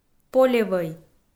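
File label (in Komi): "полевӧй", Komi, female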